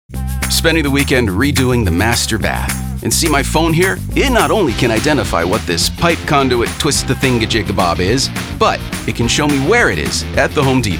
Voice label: American Voiceover